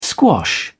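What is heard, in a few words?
"squash", British English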